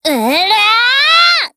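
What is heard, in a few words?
AK74U attack, Japanese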